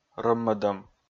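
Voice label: "рӧммӧдом", Komi, male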